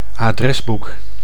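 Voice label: "adresboek", Dutch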